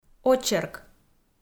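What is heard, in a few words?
"очерк", Komi-Zyrian, female; audio dict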